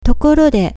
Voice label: "tokorode", Japanese

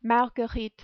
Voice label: "Marguerite", French

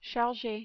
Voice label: "charger", French

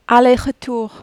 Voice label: "aller retour", French

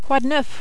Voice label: "quoi de neuf", French

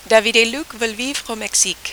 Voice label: rhythm, French

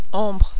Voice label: "ombre", French

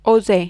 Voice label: "oser", French

